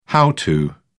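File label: "how to", British English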